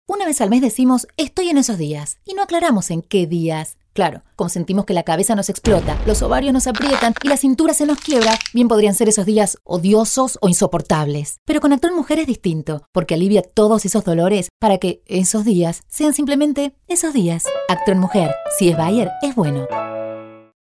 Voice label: mujer radio, hablados locucion

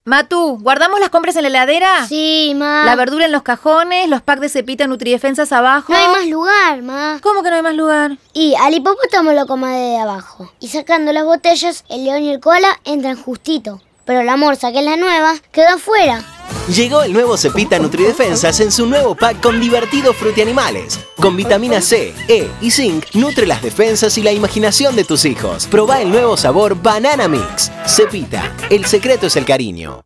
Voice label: hablados, acting

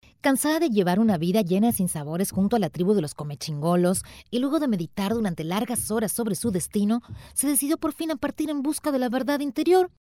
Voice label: mexicano neutro

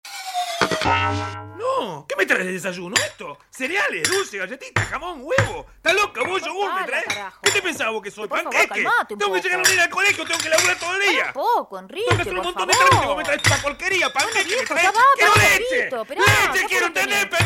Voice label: la nerviosisima, hablados acting

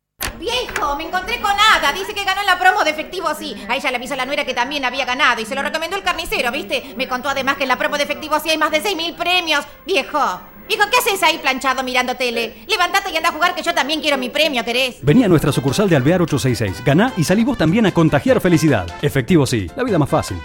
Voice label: hablados, acting

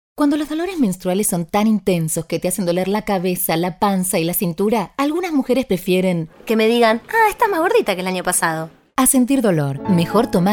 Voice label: mujer; hablados; locucion

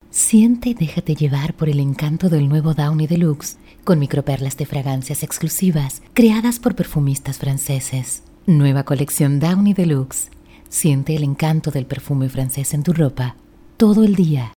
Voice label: hablados, neutro

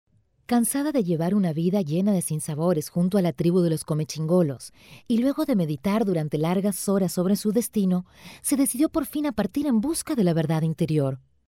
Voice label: latin neutro

